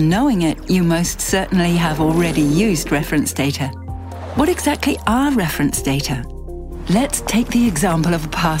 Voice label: info film; eu reference data; Muttersprache Englisch